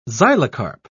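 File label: "xylocarp", British English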